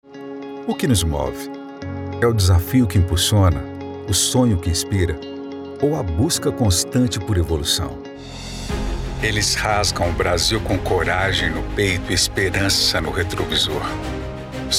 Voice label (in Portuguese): Demo Reel Variado, Locução Publicitária